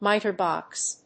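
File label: "miter box", English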